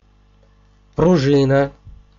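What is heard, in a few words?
"пружина", Russian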